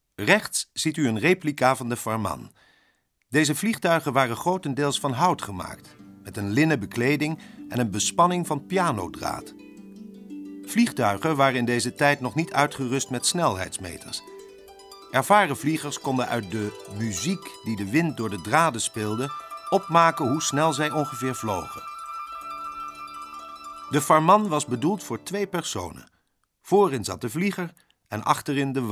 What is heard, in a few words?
audiotour; soesterberg